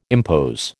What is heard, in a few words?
"impose", American